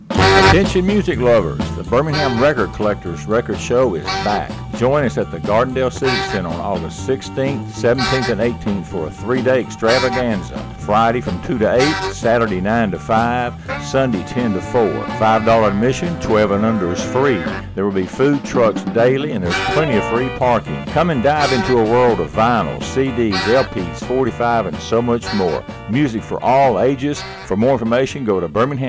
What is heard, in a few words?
Radio Spot; record show advertisment